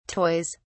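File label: "toys", British English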